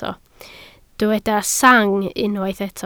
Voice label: "sang", Welsh